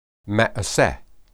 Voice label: "maeseh", Hebrew